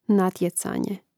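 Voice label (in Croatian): "natjecanje", Hrvatski; mreznik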